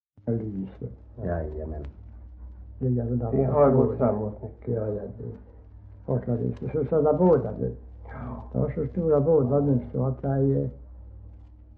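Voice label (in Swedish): Värö Halland, dialekter svenska sagor; Folkminnen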